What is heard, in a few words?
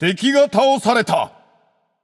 notify slain enemy, japanese; caster